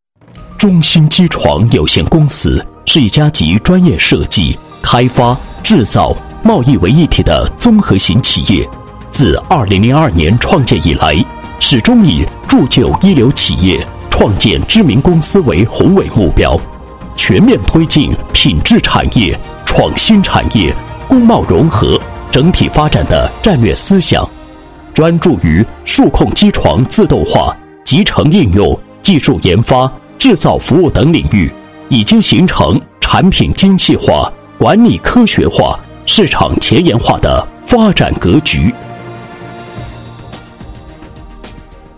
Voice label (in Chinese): 男-企业-机床专业设计开发制造贸易, 配音案例